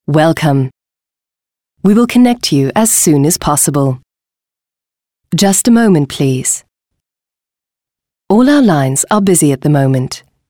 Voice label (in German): en-us sonstiges